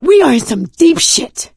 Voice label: reaction negative, survivor voice; Left 4 Dead 2; producer